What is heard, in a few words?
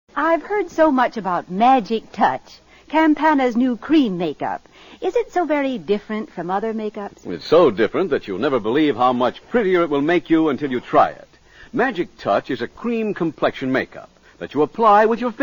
Vintage Commercials; Campana